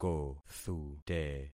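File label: "kothute", concatenated syllables; Affixing experiment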